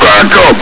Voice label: "backup!", hgrunt

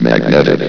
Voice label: "magnetic", vox

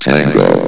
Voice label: "tango", vox

